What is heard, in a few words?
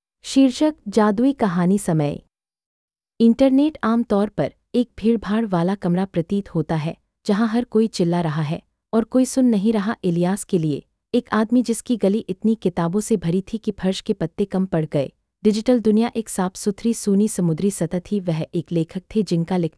hindi; micro-tales